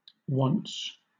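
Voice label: wonts, British English; pronunciations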